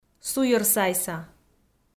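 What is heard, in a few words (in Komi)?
"суйӧрсайса", Komi, female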